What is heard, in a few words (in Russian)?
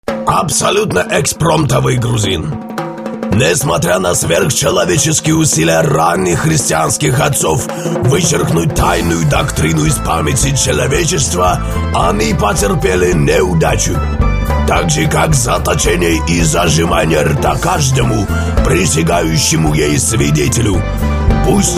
gruzin